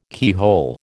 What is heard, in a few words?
"keyhole", American